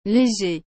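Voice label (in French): "léger", French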